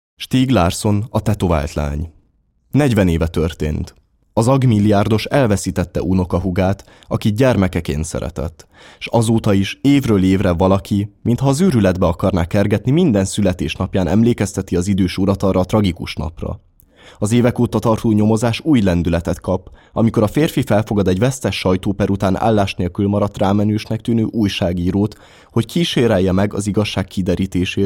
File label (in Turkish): Macarca Erkek; macarca seslendirme; erkek sesler